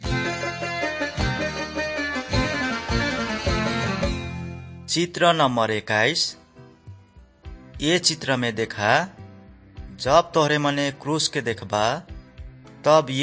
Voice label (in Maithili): good news maithili, nt drama